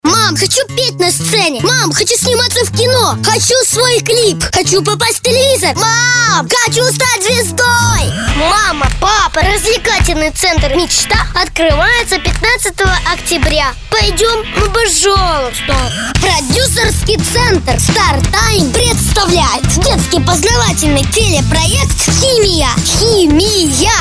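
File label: Russian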